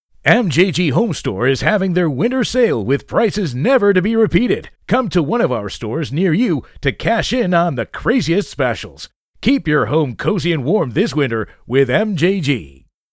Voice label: USA sales